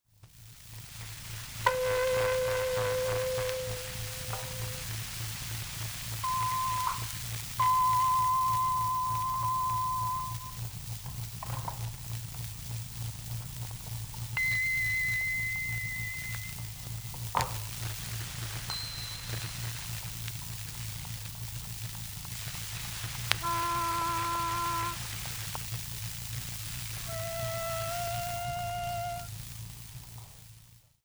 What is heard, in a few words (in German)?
Experimentalaufnahmen Vokale; Archiv; Stumpf